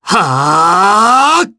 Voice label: Casting, jp; Fluss; kingsraid